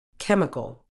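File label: "chemical", British English; ˈkem.ɪ.kəl; KEM-i-kuhl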